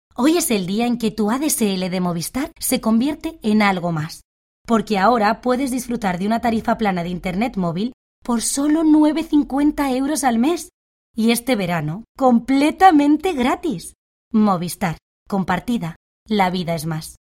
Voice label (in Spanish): voz, actriz de doblaje; publicidad